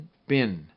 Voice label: "bin", Minimal Pairs